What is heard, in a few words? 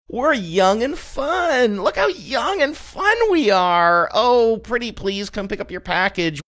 saying we're young and fun look at how young and fun saccharine